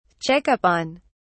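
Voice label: "check up on", British English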